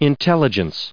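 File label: "intelligence", US English pronunciation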